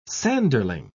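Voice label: "sanderling", British English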